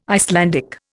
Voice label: "Icelandic", Indian